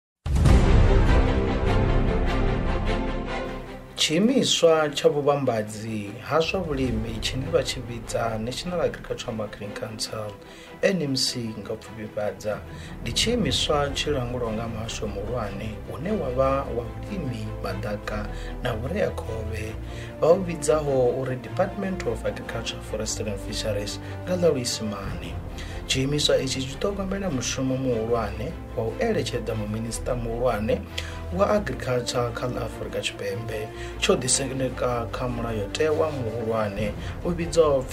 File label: Venda Listeriosis